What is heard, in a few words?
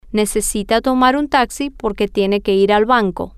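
She needs to take a taxi because she ha, Spanish, female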